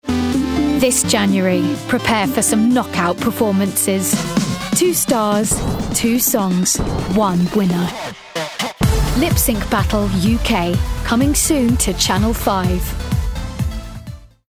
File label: PROMO; Lip Sync Battle